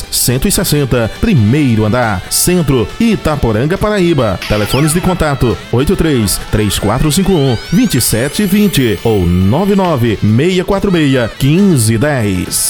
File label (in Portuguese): spot espaço odonto; espaço odonto itaporanga